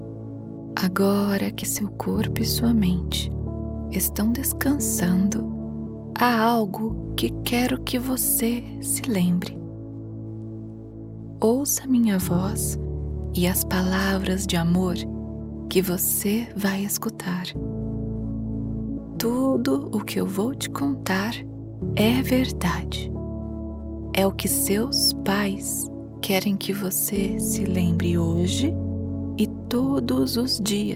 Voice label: Meditation Night Affirmation for Children Gentle Soft Loving Brazilian; Portuguese